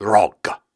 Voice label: Syllables and Imaginary Words, Hard, Viking Vocal; Viking Vocal Builder